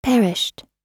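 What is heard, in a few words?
"perished", American English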